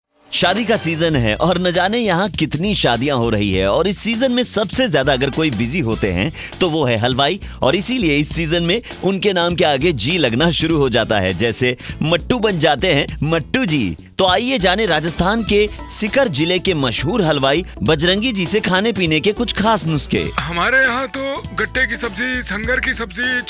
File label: Radio AD; Bajrangi Halwai